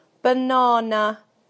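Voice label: "banana", British English; PictureDictionary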